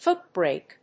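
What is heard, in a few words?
"foot brake", English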